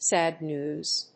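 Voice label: "sad news", English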